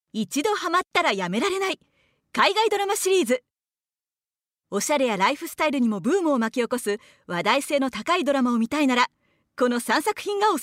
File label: narration